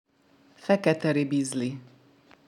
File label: "fekete ribizli", hungarian; hungarian fruit flashcards